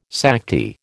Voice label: "Sakti", American